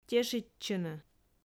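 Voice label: "тешитчыны", Komi, female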